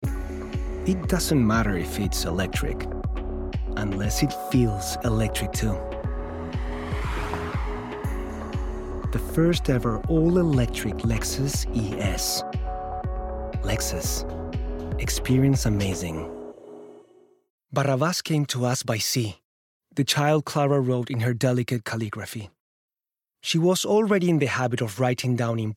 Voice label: English